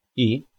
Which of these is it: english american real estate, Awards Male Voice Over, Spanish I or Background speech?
Spanish I